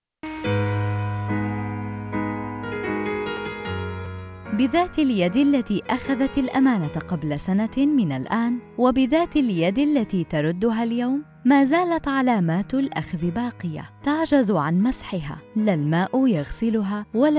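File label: Arabic, female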